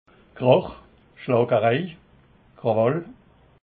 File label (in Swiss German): "krach", alsace